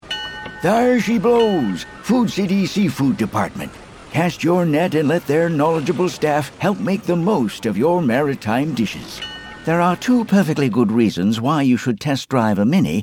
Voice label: Commercial Characters